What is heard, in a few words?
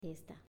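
"Testa", Italian, female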